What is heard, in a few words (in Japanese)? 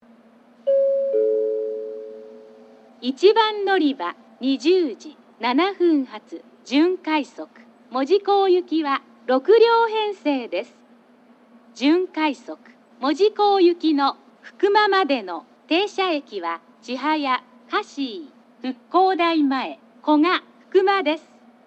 1番のりば案内放送（準快速 門司港行き）; 吉塚; 鹿児島本線